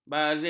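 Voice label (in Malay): "bazir", Malay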